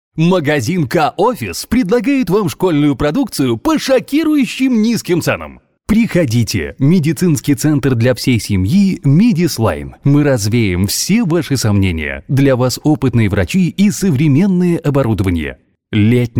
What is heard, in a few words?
male russian voice over talent upbeat